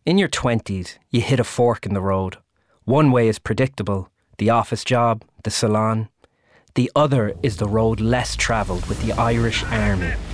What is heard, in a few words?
commercial vo